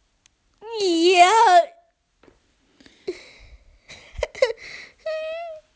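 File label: "need", Mandarin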